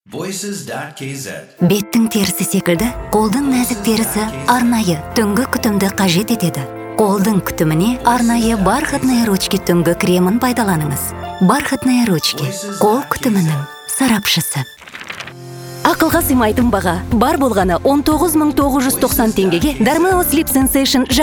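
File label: kazakh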